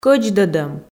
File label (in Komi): "кӧдздӧдӧм" Komi